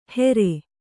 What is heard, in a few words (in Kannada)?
"ಹೆರೆ", Kannada